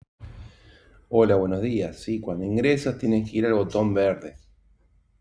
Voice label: voice message